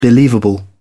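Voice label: "believable", British English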